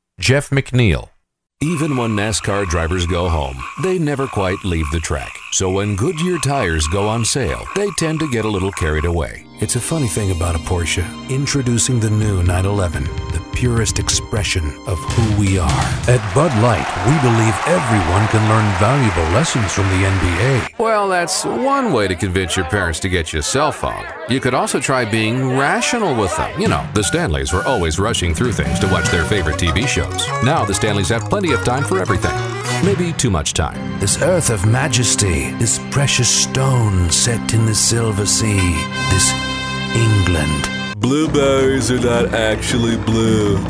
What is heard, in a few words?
commercial men